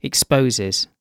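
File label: "exposes", British English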